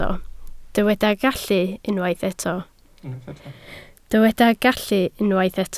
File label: "gallu", Welsh